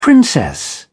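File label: "princess", British English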